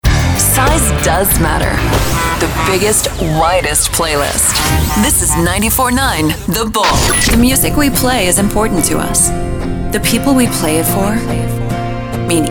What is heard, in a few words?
radio country, radio imaging